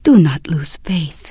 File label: Draenei Female Military Farewell